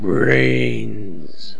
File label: brains, zombie speech